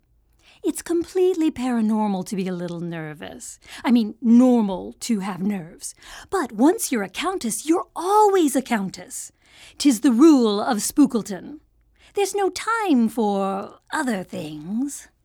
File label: Animation